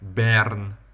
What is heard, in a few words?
"bern", Middle English